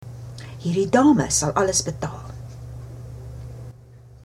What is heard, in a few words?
pay, afrikaans